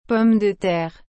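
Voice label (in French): "pommes de terre", French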